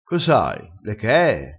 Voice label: "ave le chee", crespinese

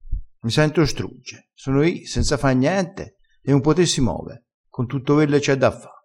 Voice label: "strugge", crespinese

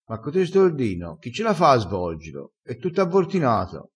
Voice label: "avvortina", crespinese

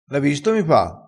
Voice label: "l ho visto", crespinese

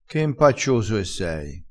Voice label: "impaccioso", crespinese

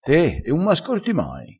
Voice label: "ascorta", crespinese

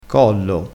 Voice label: "collo", crespinese

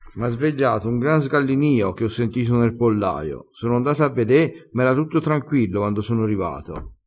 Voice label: "sgallinio", crespinese

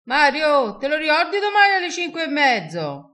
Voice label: "a fa", Crespinese

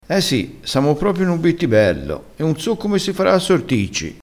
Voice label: "esse n un bittibello", vocabolario dialettale

